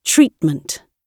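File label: "treatment", British English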